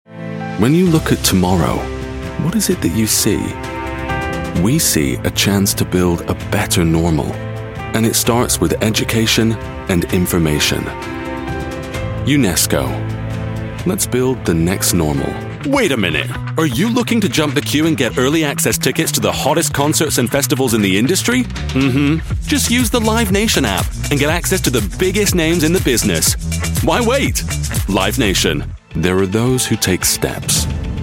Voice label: midatlantic voice commercial